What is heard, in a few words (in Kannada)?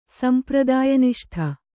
"ಸಂಪ್ರದಾಯನಿಷ್ಠೆ", Kannada